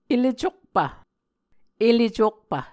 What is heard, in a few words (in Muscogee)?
"ele cokpv", Muscogee